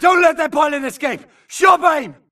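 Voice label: grunt target Player Moving Mid; imc; Titanfall 2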